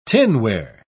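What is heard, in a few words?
"tinware", British English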